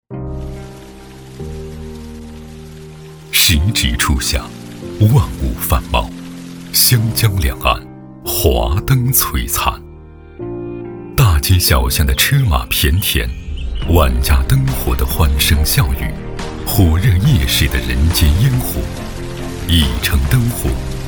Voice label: 男 宣传 企业 片 走心高端; 信仰之光

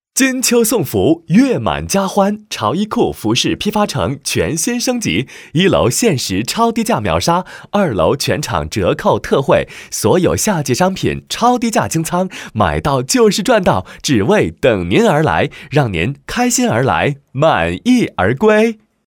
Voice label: 男 中文 广告; 潮衣库

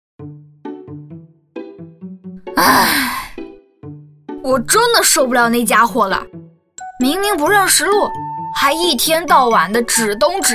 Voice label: 女 角色 蛙先生

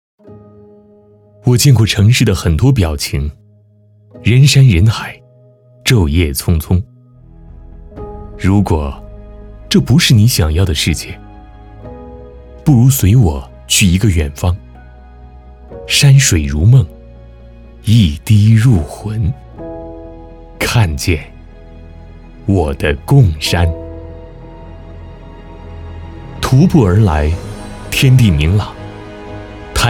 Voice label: 男 宣传片; 美丽贡山

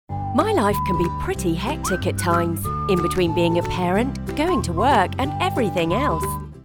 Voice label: 外籍英女 欢快叙述

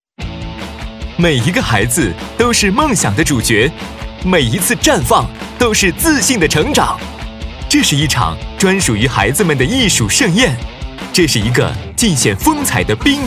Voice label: 男 台呼 年轻活力; 河南广播电视台六一少儿晚会

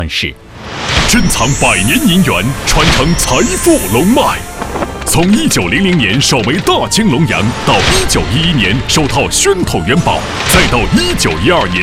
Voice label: 男 广告 电购; 银元电购

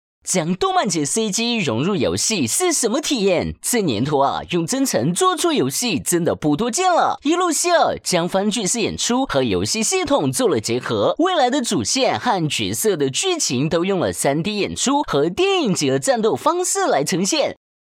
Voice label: 男 信息流 台湾腔信息流广告; 番剧级CGAC

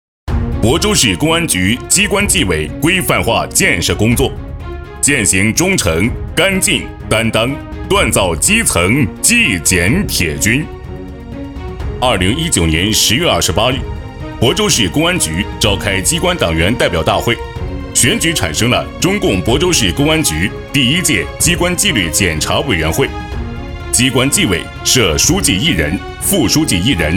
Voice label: 男 宣传片 机关纪委

